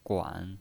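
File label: "guan", Mandarin Chinese, third tone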